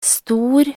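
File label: "stor", Norwegian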